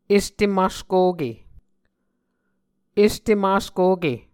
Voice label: "este maskoke", Muscogee; Mvskoke Words with Voicing Consonants; A Dictionary of Creek/Muskogee; SIL International; Onvkv Ohhvyayices